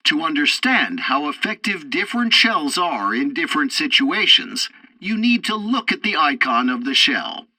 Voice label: tutorial tank arcade fourth target ammo, english

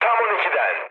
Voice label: bomb success, mood med, speech tur; War Thunder

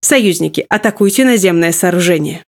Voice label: Speech Quick Commands Enemy Building

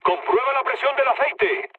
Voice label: damaged oil, mood low, speech; War Thunder

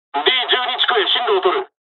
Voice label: dialogs japanese; War Thunder

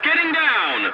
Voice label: voice message landing, english; War Thunder

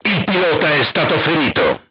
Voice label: voice message driver wounded, crew tanks italian

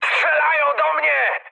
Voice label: aircraft damaged mood high, speech pol; War Thunder

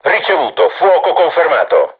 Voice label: art shoot, crew tanks italian; War Thunder

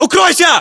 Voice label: order cover generic, ru; localized english

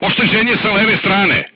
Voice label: voice message shot left, crew tanks serbian

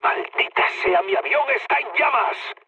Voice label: on fire, mood med, speech; War Thunder

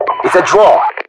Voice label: draw, RU; mpvoice

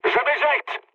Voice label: bailout mood med, speech fr; War Thunder

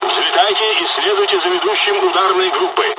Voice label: first wave takeoff, dialogs russian; War Thunder

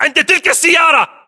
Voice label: landmark near car, battle chatter, english

